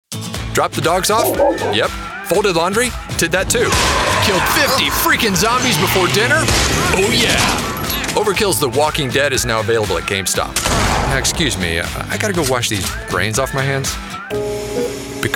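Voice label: male voice, commercial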